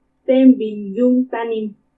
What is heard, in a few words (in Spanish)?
"Témbini ka iumu tanimu", dieciocho, Purépecha; Números